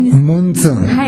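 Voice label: "muntun", Minamiyamagata dialect Japanese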